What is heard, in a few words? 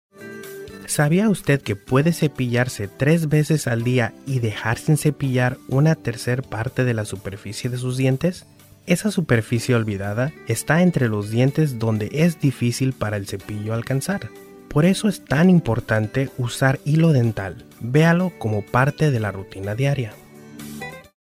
Dental Spanish